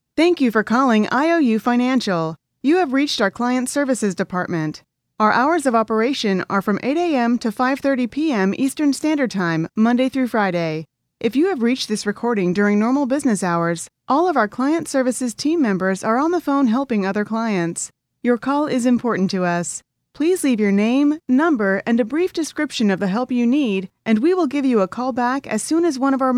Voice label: english american ivr